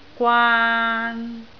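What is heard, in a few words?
"gwaan3", Cantonese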